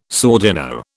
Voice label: "sordino", British